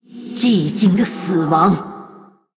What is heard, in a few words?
Female BloodElf Attack; ▶寂静的死亡。 ▶Silent death!; 虚空之影瓦莉拉; 攻击